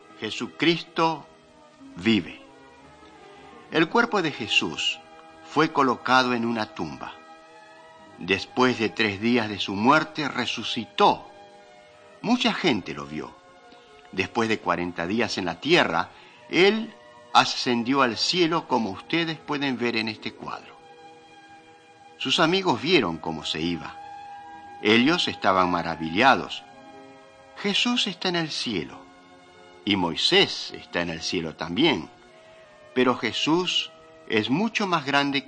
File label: mighty men of god spanish latin america